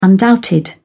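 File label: "undoubted", British English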